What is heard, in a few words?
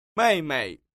"meimei", tiếng trung; SỐ ĐẾM